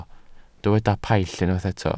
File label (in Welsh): "paill", Welsh